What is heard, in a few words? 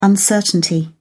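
"uncertainty", British English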